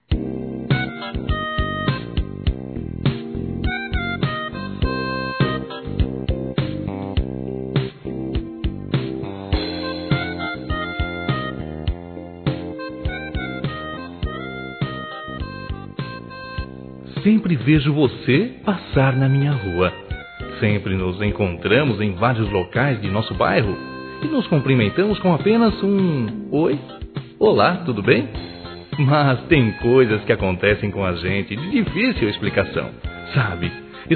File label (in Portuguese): Paquera Masculino; Telemensagens 2017